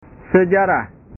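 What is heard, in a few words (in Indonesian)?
"sejarah", Indonesian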